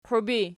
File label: "qwiib", Archi; qiwib